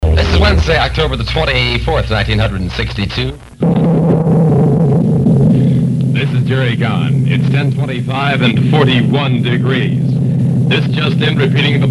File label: cuban missile crisis news; 1962